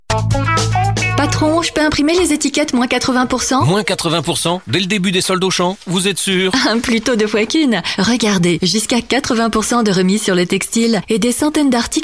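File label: female French commercial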